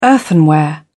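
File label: "earthenware", British English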